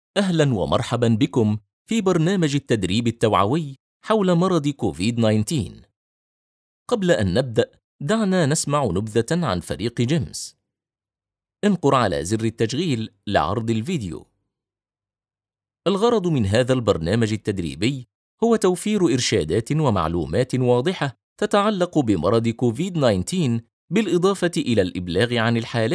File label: arabic e-learning